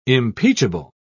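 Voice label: "impeachable", British English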